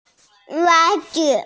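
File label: "socks", hearing impaired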